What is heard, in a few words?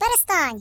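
"Cut it out", British English; hedgewars; Default uk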